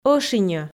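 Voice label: "ӧшиньӧ", Komi, female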